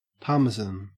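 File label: "parmesan", Australian English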